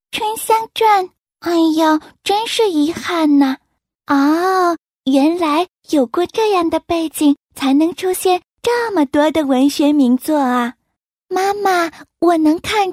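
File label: chinese mandarin female character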